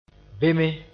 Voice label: "beme", Mooré

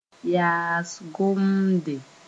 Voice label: "yaas-gõmde", Moore

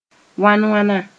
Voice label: "wãn-wãna", Mooré

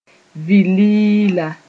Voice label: "vĩnlɩɩla", moore

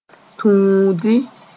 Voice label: "tũudi", moore

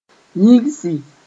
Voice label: "yĩgsi", Moore

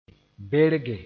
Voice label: "berge", Mooré

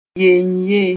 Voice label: "yeynye", Mooré; one by one

